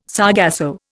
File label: "sargasso", Indian